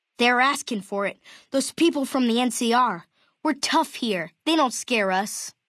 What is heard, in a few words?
male child greeting